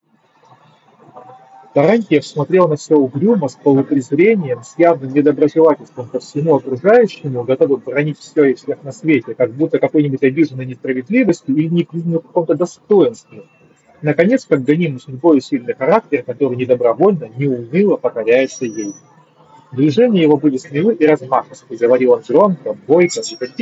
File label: Mic noise; Запись с микрофона гарнитуры (в шумной обстановке); ugreen max5c